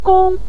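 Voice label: "kong", Mandarin Chinese, neutral tone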